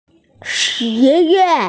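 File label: "college", Mandarin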